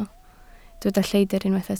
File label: "lleidr", Welsh